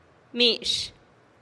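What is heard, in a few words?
"mi-x", Teotitlán del Valle Zapotec; los mijes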